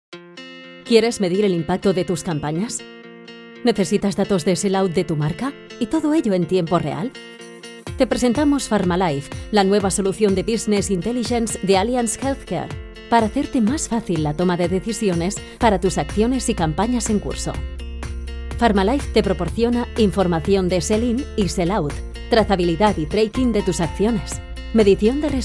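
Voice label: Spanish, female, elearning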